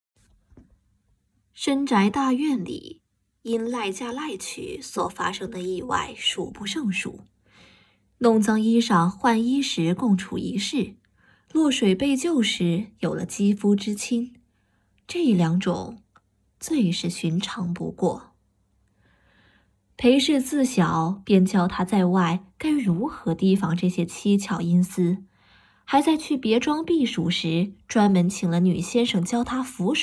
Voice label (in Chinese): 旁白